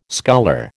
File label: "sculler", American